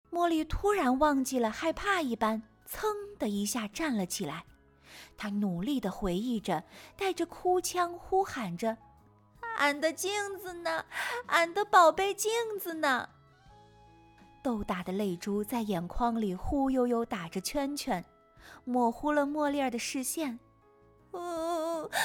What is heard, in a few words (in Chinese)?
童话小女孩角色代入哭泣